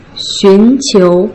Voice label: "xun2 qiu2", Putonghua